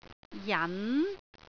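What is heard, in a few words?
"jan2", Cantonese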